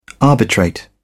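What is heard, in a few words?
"arbitrate", British English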